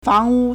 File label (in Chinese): "fang2wu1", Chinese